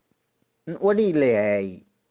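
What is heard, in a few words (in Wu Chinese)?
爾屋裏徠咸伊, 台州語; 你家在哪？; 長用短語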